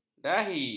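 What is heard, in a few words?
"dahi", Malay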